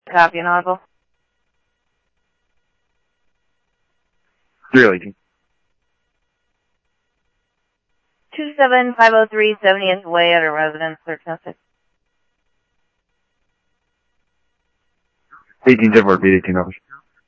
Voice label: Scottsdale Police, scanner audio; ThompsonPeakHigh; SCTSDLE PD - PD2